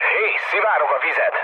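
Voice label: Hungarian damaged water mood low, speech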